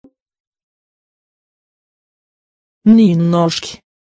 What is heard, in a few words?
nynorsk, norwegian; welcome